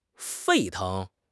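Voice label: "沸腾", Chinese; HSK6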